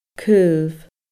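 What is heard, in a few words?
"caomh", Scottish Gaelic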